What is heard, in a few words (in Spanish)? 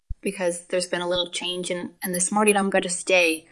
Voice Acting es